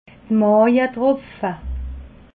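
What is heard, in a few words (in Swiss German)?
"maawetropfe", Alsatian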